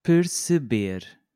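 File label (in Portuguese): "perceber", português europeu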